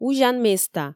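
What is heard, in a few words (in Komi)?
узян места, Komi, female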